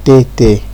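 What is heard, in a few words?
"taytay", Nigerian Pidgin, male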